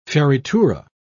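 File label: "fioritura", British English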